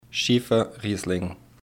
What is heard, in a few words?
"Schiefer Riesling", Pronunciation; Villa Huesgen